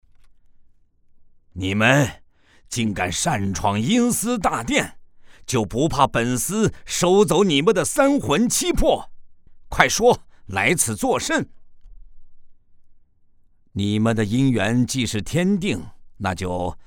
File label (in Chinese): 男 角色 阎王爷